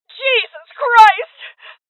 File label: Voices HeavyLosses Female; rebel cops 2019 gamerip